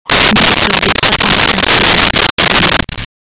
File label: speech nav; google android apps maps